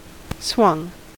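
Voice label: "swung", American English; pronunciation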